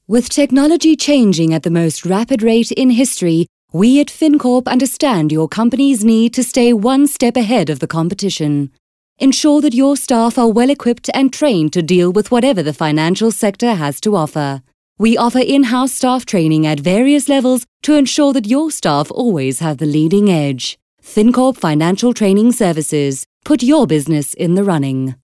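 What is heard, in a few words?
English Corporate South African Neutral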